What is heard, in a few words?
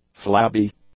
"flabby", english